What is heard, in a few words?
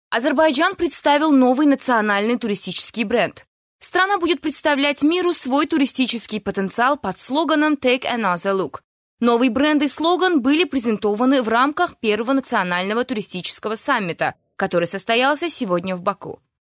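Azerbaijani female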